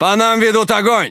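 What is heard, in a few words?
Russian Speech Pilots Fighter Under Attack; World Of Warships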